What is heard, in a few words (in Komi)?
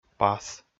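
"пас", Komi, male; audio dict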